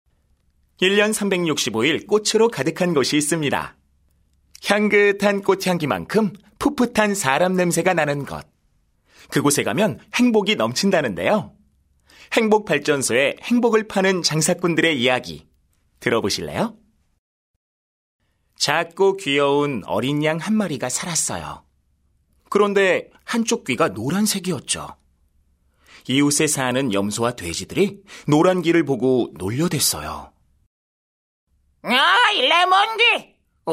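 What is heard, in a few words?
Korean, male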